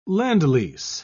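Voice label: "lend-lease", British English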